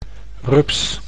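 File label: "rups", Dutch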